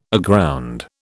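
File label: "aground", British English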